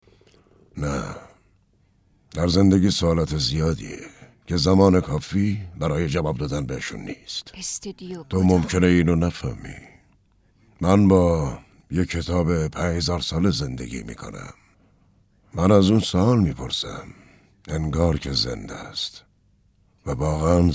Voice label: dub